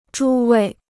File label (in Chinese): "诸位", Chinese